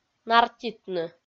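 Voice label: "нартитны", Komi, female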